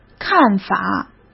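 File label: "看法", Mandarin Chinese; chinese-flashcards; hsk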